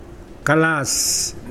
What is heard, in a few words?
smoke, Mokilese